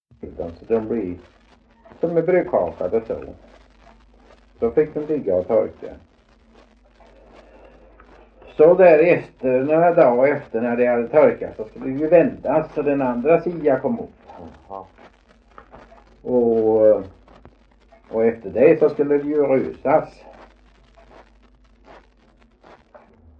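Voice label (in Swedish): Veddige Halland, Svenska sagor dialekter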